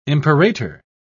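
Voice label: "imperator", British English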